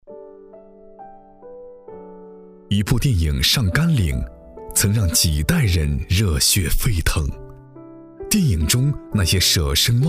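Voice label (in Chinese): 深情回忆讲述; 深情回忆抗美援朝英雄讲述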